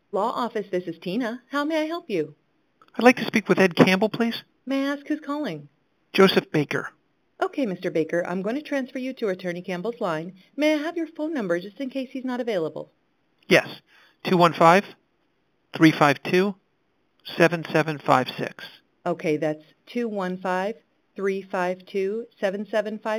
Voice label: answering service recording offsite receptionist